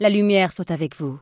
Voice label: human, female; PhoenixCraft 1.16.5; PhoenixNPCPack; minecraft; lumiere soit avec vous